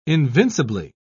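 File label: "invincibly", British English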